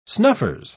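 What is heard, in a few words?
"snuffers", British English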